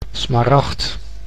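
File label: "smaragd", Dutch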